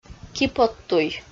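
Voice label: "киподтуй", Komi, female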